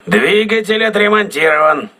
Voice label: voice message engine repaired, crew ships russian